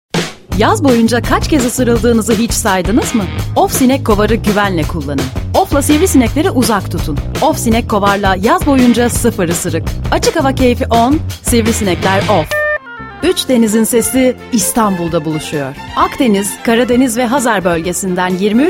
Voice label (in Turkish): reklam